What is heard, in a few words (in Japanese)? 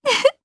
Happy, jp; kingsraid